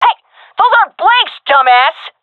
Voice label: taking fire friendly, fem; Source Model Packs; Counter Strike Model Pack; CS2